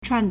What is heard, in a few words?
"chuàn", Chinese